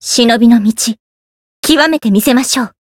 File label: Voice growth jp